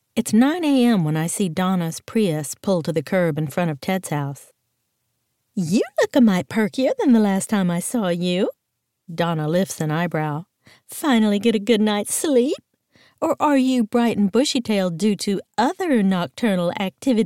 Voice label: Mystery 1st Person Females